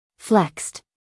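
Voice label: "flexed", American English, female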